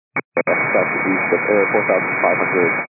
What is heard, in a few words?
122 925MHz, scanner radio